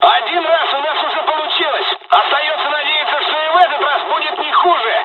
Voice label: dialog, dialogs russian; us midway; War Thunder